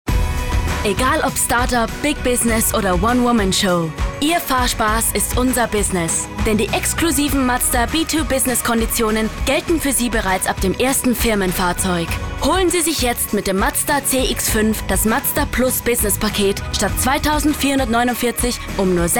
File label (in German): Werbung